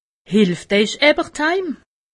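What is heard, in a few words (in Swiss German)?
"hielft", Alsatian; medecine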